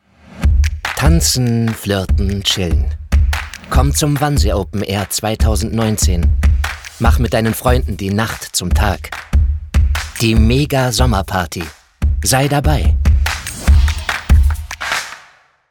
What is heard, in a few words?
jingle party openair; wannsee